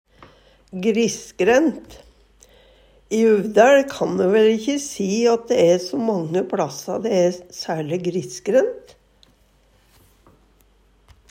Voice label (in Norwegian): grisgrent, Numedalsmål; Adjektiv